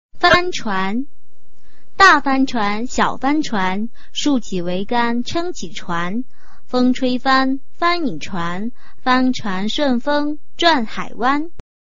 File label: chinese tongue twister